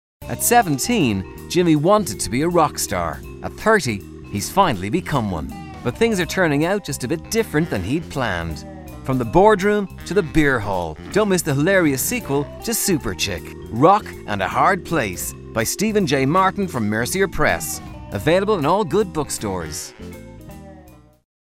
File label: radio ad